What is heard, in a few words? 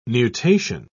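"nutation", English, British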